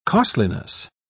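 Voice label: "costliness", British English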